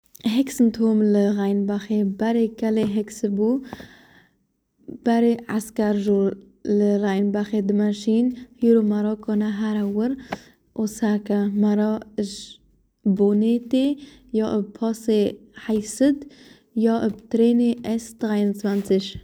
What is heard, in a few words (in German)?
kurdisch; Hexen turm